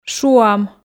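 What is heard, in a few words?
"шуам", Komi, female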